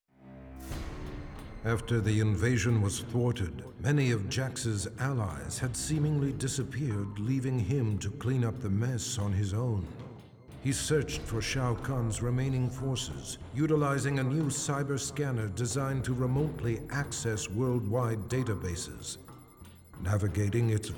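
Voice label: music voice-over endings; jax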